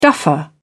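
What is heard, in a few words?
"duffer", British English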